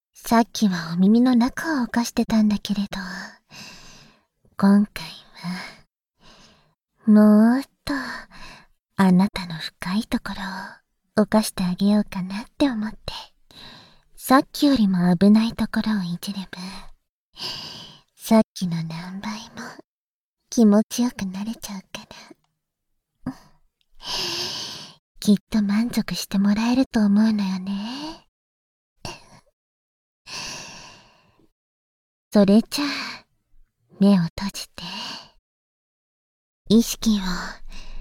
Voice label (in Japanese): 脳内囁き+中舐め（バイノーラルマイク収録立体音響編集 効果音あり）, ASMR